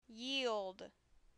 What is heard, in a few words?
"Yield", English